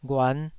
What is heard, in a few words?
"ghuan5", Taiwanese syllable